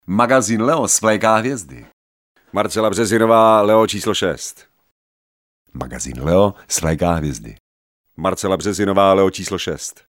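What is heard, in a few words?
Male Czech commercial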